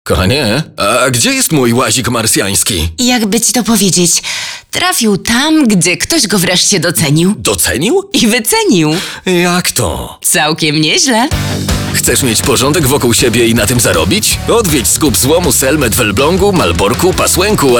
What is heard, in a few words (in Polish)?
spot radiowy